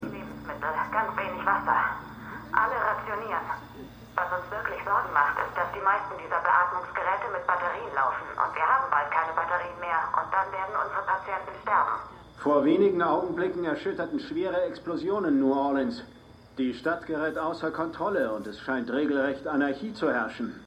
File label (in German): TV Moderator; MEMORIAL HOSPITAL - DIE TAGE NACH HURRIKAN KATRINA (DF 2022); Five Days at Memorial